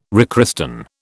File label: "rechristen", British